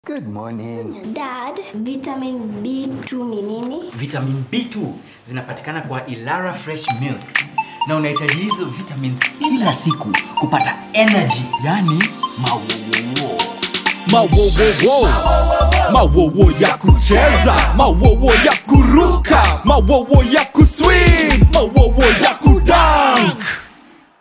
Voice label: TV Radio spot Jingle; bilingual African voice actor; ILARA Mawowowo

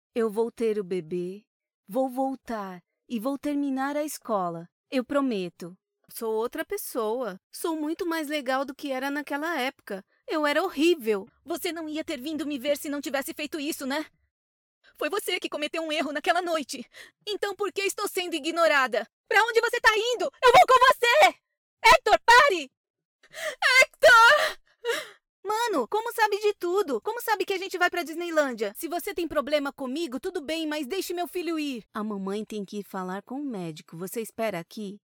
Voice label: Youth & Teen Characters; PT-BR; Dubladora & Voice Actress

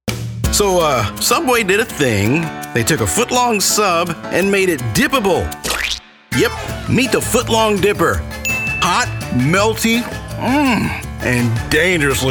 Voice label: Commercial

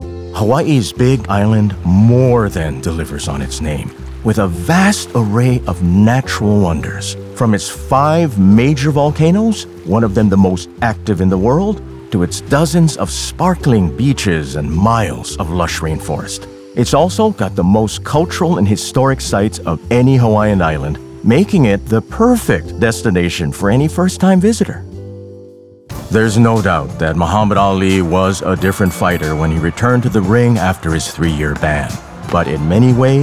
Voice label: Narration

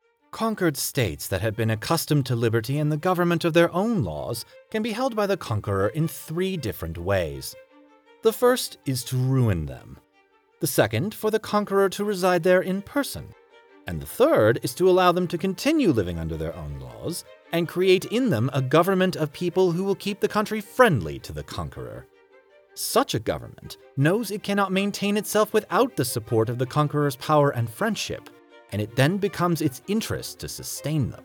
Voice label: Historical Source Dramatic Readings

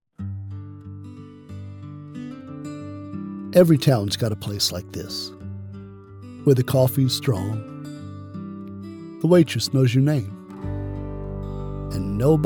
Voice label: Diner Comercial

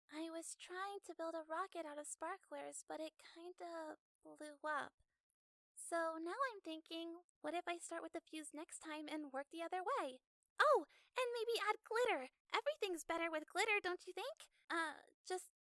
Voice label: young female

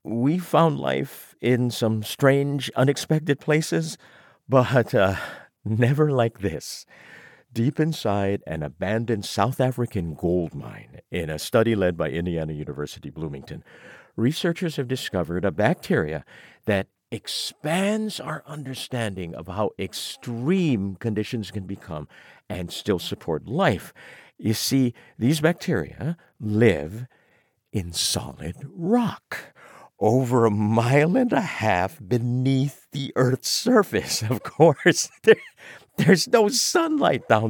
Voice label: Narration

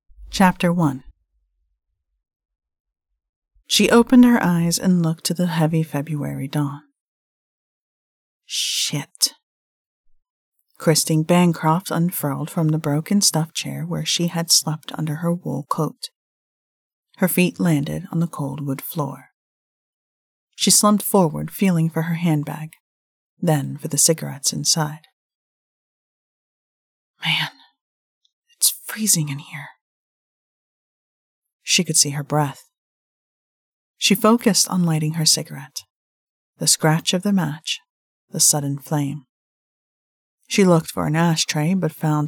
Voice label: Retail Audio Sample

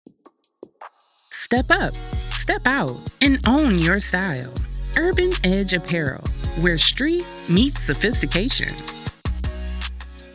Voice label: Urban Contemporay Ad Energetic Upbeat Edgy; Verbal VOasis

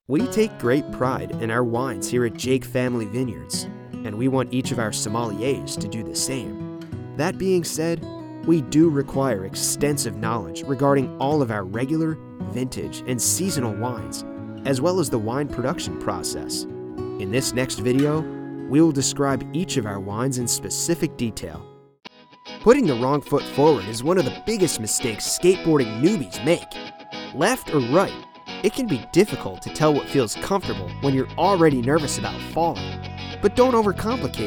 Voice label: eLearning

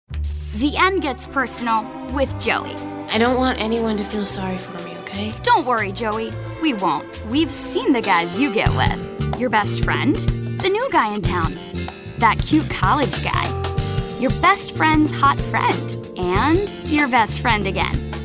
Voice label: Promo

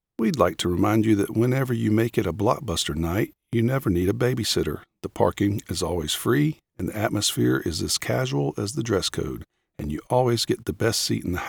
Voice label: ad; Blockbuster

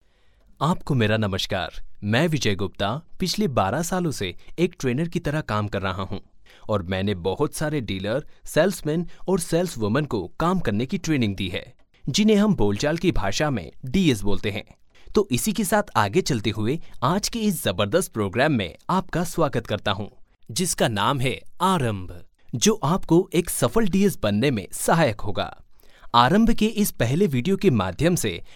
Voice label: Hindi Elearning

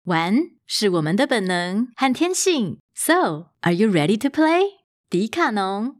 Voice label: corporate; female voice in Mandarin Chinese

